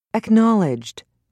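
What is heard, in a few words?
"acknowledged", British English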